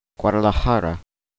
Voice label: Guadalajara pronunciation